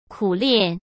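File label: "苦练", Mandarin Chinese; chinese-flashcards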